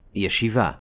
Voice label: "Yeshiva", Hebrew